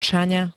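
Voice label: "Čaňa", Slovak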